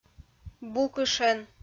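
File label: "букышӧн", Komi, female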